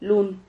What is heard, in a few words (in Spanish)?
"lun", Silabas